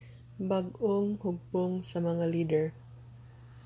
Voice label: Cebuano; new set of leaders; bag-ong hugpong sa mga lider